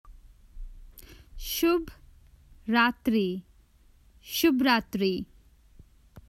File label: Common Hindi Phrases for travel Good night